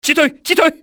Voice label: man down, enemy cantonese male; XBOX